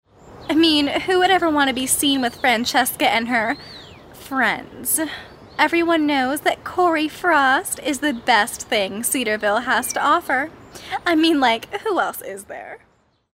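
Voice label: social snob, voice-over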